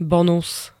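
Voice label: "bonus", Slovak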